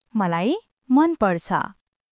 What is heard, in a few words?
Nepali, basic phrases